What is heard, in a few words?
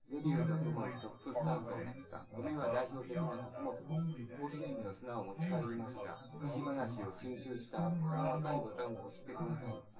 male synth conv 250 ms 5 cm